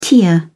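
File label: "tear up", British English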